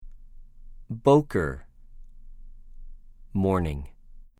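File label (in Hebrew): "בֹּ֫קֶר", Hebrew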